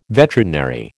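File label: "veterinary", American English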